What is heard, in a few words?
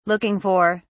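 "looking for", British English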